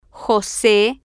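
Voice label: "jose", Spanish, female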